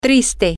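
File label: sad, Spanish, female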